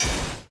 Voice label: hurt

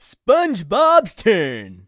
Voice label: announcer; spongebob; Nick Summer Sports Stars

